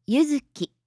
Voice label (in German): "yuzuki", japanische maedchennamen